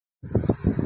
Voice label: Voice Note